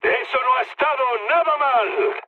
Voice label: trick, mood high, speech; War Thunder